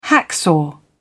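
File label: "hacksaw", British English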